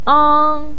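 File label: "ang1", Mandarin Chinese, first tone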